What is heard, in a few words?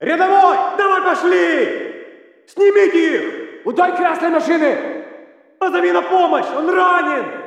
walla rus mountain chatter